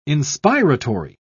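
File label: "inspiratory", British English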